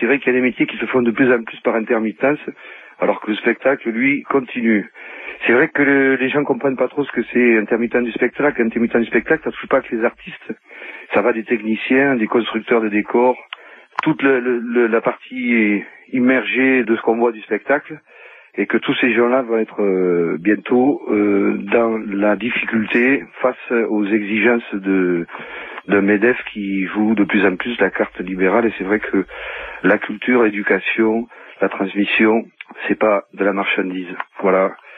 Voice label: Intermittents repondeur

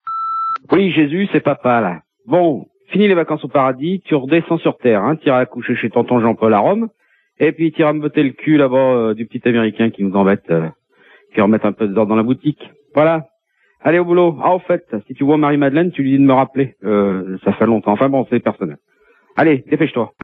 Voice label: Irak repondeur

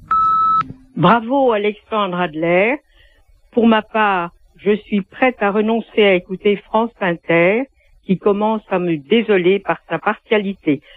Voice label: répondeur; Derapage Alexandre Adler

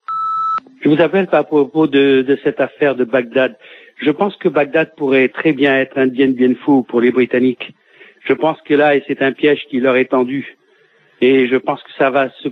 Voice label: Irak Bagdad repondeur

